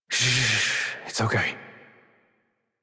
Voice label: Horse Calm Adult; Warframe; Duviri